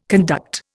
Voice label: "conduct", Indian